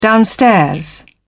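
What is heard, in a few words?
"downstairs", British English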